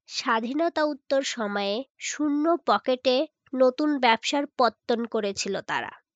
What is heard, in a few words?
Female